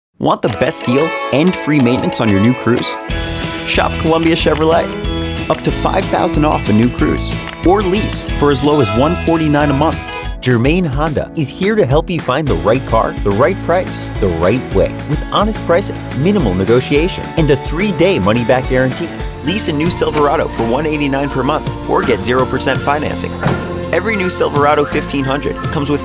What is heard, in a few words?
english american automotive